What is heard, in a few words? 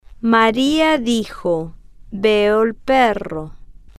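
Maria dijo veo el perro, Spanish, female, slow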